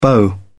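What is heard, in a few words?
"beau", British English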